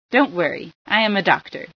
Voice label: heal, female